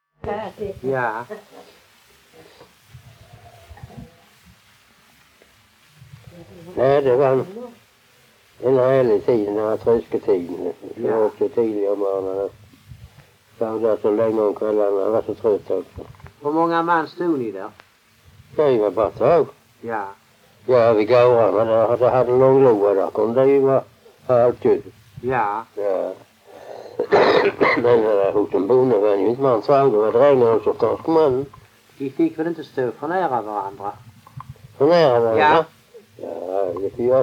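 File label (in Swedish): Allerum Skåne, dialekter svenska sagor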